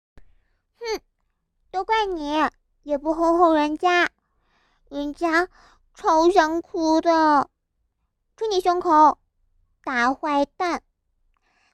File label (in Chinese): 萝莉 妖孽 老妇音